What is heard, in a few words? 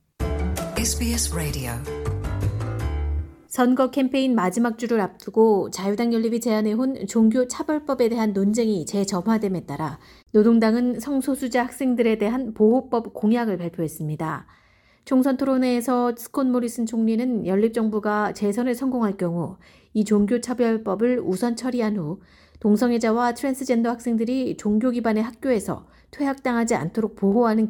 korean; SBS Korean; 노동당, “집권시 LGBTIQ+ 학생 보호법 도입” 공약